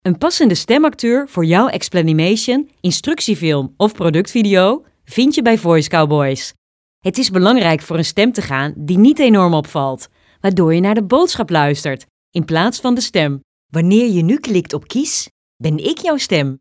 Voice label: elearning